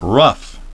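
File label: "rough", English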